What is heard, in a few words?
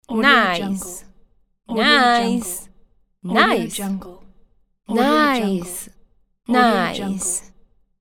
woman voice nice